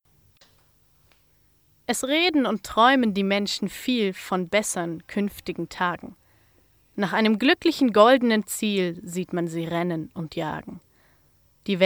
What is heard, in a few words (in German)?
Lyrik Hoffnung